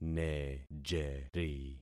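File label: "nejeri", concatenated syllables; Affixing experiment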